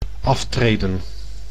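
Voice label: "aftreden", Dutch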